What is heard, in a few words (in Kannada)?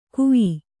"ಕುಈ", Kannada